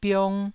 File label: "biong1", taiwanese